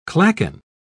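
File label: "clachan", British English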